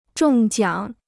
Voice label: "中奖", Chinese